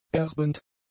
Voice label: "erbend", German; adjectives